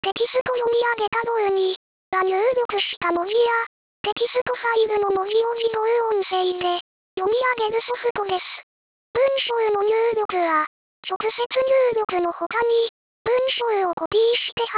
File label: lady